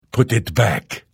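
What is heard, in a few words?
Thief, Vo; Morrowind GOTY GOG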